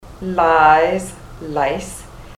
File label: lies lice, ontario